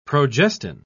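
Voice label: "progestin", British English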